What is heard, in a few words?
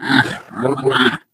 impatient; Portal 2; Source Engine; video games; ballbot